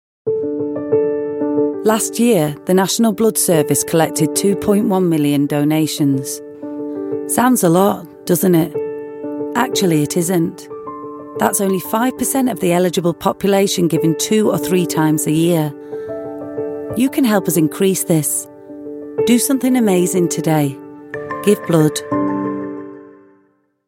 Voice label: natural